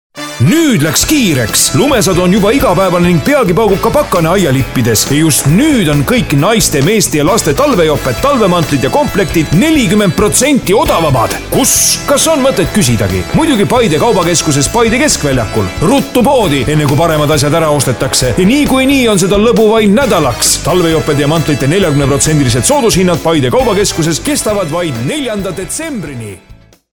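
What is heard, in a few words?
Estonian, male, commercial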